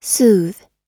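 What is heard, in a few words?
"soothe", American English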